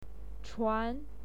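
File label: "chuan", Mandarin Chinese, second tone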